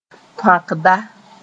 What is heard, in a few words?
"tõakda", Mooré; welder